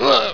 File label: co pain, cowboy; wanted